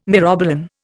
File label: "myrobalan", Indian